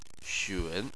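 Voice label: "xun", Chinese, third tone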